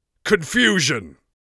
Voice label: confusion, Mage Voice Pack